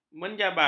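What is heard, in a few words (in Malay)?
"menjabal", Malay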